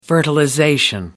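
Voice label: "fertilization", British English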